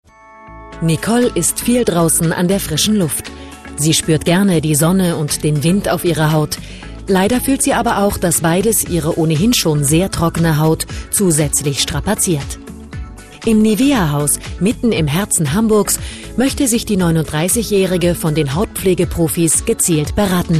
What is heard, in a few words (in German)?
Kommentar Dynamisch